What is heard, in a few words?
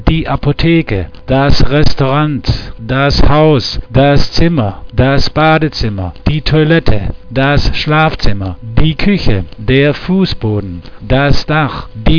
german